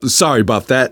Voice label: sorry, survivor voice manager; Left 4 Dead 2; left4dead2 dlc3